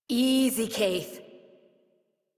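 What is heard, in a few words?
horse stop adult, English; Warframe; Duviri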